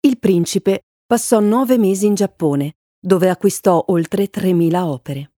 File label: italian documentaries